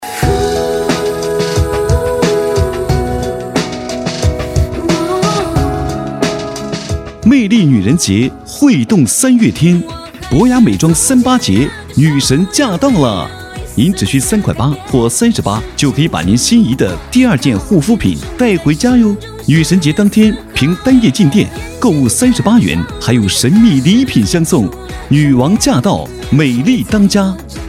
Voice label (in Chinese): 男 促销 化妆品